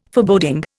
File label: "Foreboding", Indian